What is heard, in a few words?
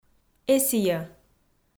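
"эсійӧ", Komi, female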